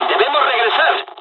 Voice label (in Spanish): dialogs spanish; usa; War Thunder